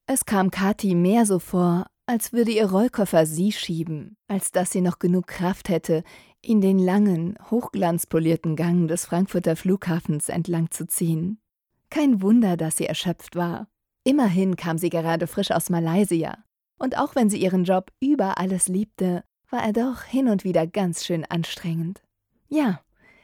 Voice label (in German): Hoerbuch; hoerspiel